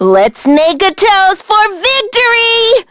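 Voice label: Vox Victory, English; Miruru; kings raid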